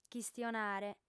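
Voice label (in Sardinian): "chistionare", female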